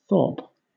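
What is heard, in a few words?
"thorp", British; pronunciations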